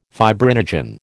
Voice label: "fibrinogen", American